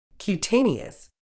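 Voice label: "cutaneous", British English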